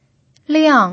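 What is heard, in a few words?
"liang", Mandarin Chinese pinyin, tone 4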